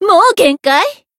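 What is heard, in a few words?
Voice finish, Japanese; sherinu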